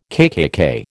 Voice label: "KKK", American